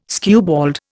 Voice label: "skewbald", Indian

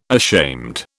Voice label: "ashamed", British

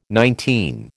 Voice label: "nineteen", American

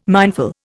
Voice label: "mindful", Indian

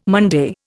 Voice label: "Monday", Indian

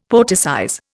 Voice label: "poeticize", Indian; Dictionary

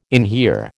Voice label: "inhere", American

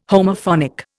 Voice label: "Homophonic", Indian

